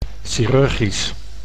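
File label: "chirurgisch", Dutch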